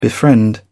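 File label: "befriend", en, uk British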